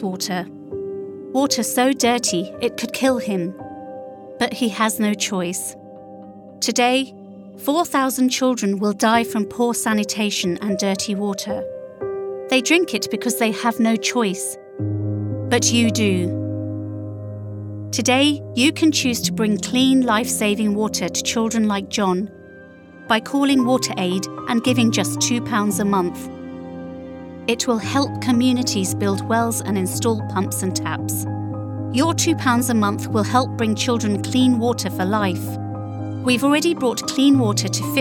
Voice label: Commercial Charity; WaterAid